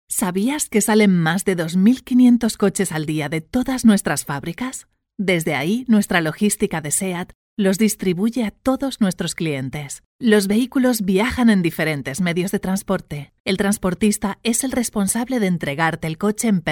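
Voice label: CASTILLIAN SPANISH MODERN, Spanish Commercial Voiceover, Female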